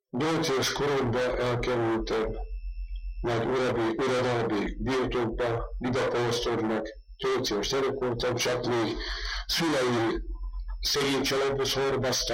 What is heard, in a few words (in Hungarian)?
nyelvjaras gyujtesek